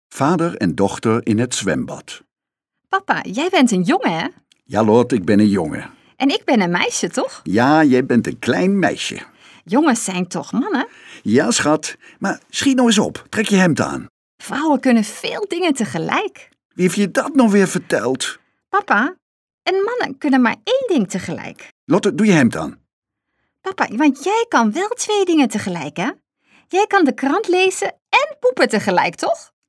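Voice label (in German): Dutch, Fremdtake Variabel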